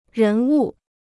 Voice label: "人物", Chinese